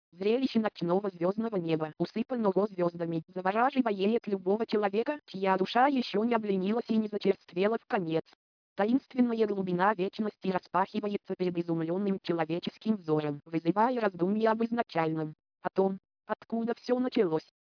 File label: russian female